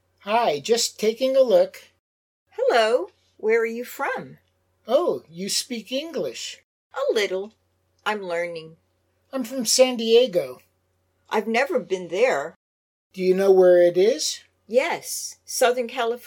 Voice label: Natural Dialog Complete